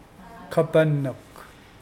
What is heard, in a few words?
broom, Mokilese